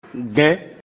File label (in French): "Gɛ́n", Tomber; Mina